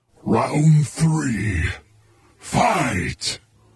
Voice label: battle narrator round 3 fight